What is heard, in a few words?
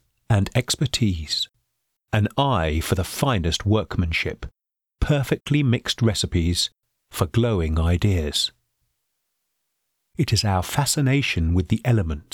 Striking deep voice for a wedding ring manufacturers production film